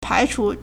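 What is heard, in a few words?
"pai2chu2", Mandarin Chinese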